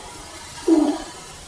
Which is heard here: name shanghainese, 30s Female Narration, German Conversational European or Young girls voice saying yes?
Young girls voice saying yes